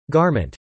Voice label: "garment", English

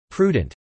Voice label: "prudent", pronounce

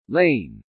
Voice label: "lane", English

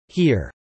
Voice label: "hear", pronounce

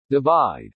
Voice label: "divide", pronounce

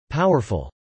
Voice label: "powerful", English

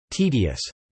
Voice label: "tedious", English

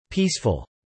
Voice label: "peaceful", English

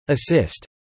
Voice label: "assist", English

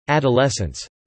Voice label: "adolescence", pronounce

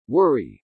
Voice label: "worry", English

